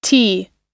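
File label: "tea", English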